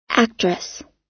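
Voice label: "actress", American English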